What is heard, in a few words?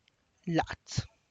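tlacochahuaya, Zapotec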